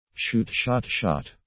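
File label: "shoot shot shot", English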